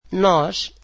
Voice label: portuguese we